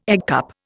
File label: "eggcup", Indian